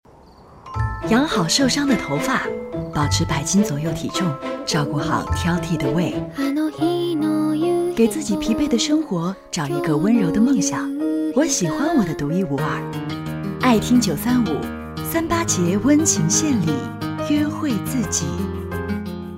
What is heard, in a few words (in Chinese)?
女 广告 栏目头（质感、知性）